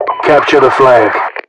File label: capture flag, Voiceovers